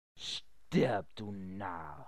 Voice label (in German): Narr